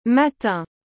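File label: "matin", French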